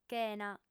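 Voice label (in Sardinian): "chena", female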